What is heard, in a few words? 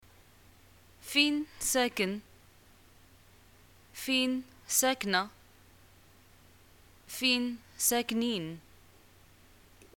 where do u live, arabic, ma